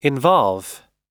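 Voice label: "involve", American English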